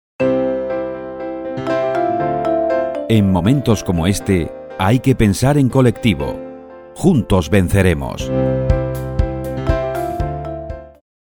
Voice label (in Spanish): mensaje motivador